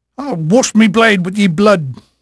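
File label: English male, voice; Pirates Of The Caribbean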